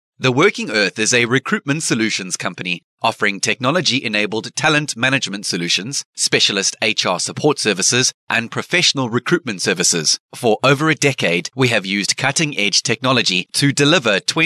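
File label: SA, voiceovers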